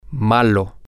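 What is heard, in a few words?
Spanish, male; bad Mean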